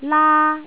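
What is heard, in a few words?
"laa1", Cantonese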